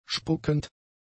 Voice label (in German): "spuckend", German; adjectives